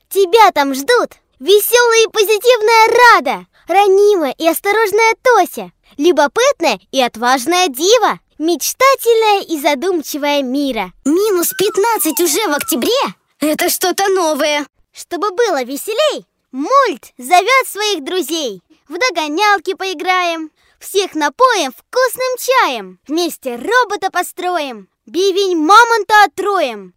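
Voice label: 11 let rek, 11 years advert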